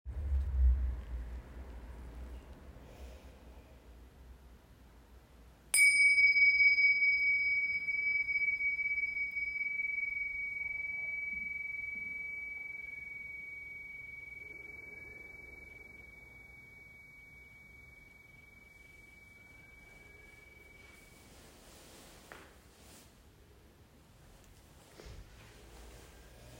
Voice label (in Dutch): Meditatie velden van aandacht intentie; mbsr training februari 2025